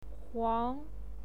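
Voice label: "huang", Mandarin Chinese pinyin, second tone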